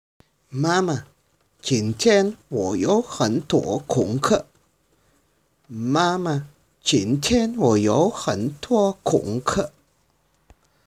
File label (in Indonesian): Percakapan; 阅 读 – Membaca